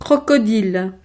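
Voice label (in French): "crocodile", French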